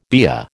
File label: "BEA", American